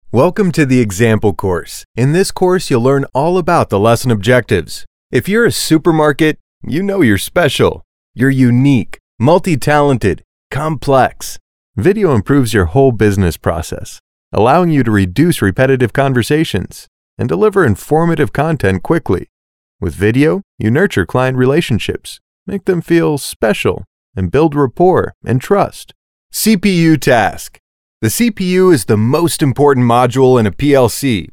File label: Elearning